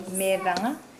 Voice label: Nukuoro; woven object

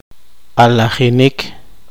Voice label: poqomchi; liberar

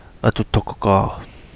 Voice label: "Adu Dogo Gaa", Kapingamarangi

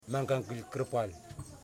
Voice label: "Arms", Yimas

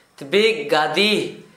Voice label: earth mound, Gta, Dialect: Hill; tbig gadi; heap of earth

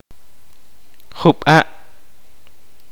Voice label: kaqchikel; a little

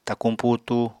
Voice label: "takuñ putu", Apatani

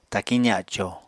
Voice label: "takiñ zaco", apatani

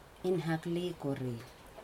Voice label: "puppy", Aneityum

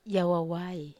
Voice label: "awawai", Wamesa

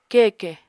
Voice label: "keke", Apatani

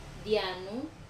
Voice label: "anu", Wamesa

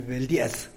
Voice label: "beldias" type of snake; animals

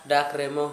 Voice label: postman, Gta, Dialect: Hill; dak remo

